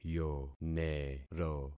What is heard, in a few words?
"yonero", concat syllables; Affixing experiment